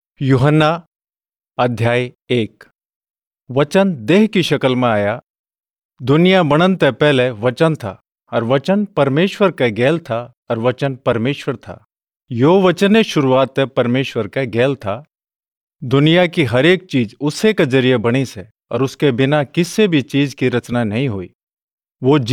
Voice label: Hariyanvi, Hariyanvi Audio Bible; John 1 After Training